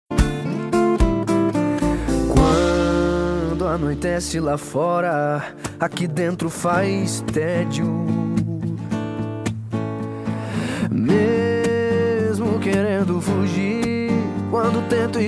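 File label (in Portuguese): RECONCILIACAO female, telemensagem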